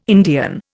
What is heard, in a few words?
"Indian", Indian